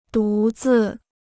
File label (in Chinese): "独自", Chinese